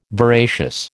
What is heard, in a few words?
"veracious", American